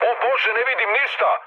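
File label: Serbian searchlite mood med; speech; War Thunder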